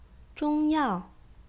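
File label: "zhong yao", Chinese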